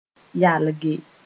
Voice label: "yalge", Moore

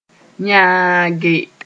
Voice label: "yãage", Mooré; stretch an elastic thing

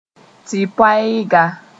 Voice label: "tɩ-poɛɛga", Moore; tree sp

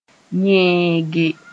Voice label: "yẽege", Mooré

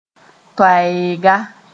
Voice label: "toɛɛga", moore

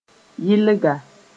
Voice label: "yɩlga", Mooré; tree sp

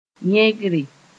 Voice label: "yẽgre", Mooré

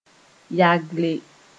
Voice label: "yagle", Moore

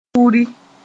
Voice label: "turi", moore

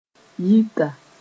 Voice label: "yɩta", Mooré; agent

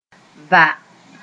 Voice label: "va", mos; grab and lift; Mooré

